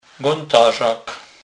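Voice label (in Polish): "gontarzak", Kurpie dialect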